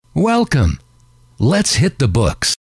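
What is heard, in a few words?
welcome Let S Hit The Books, male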